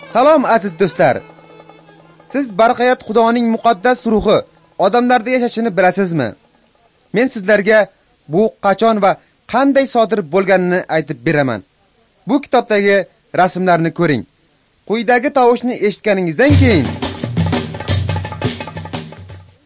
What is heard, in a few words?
uzbek northern; acts of the holy spirit